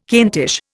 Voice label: "Kentish", Indian